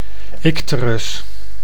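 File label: "icterus", Dutch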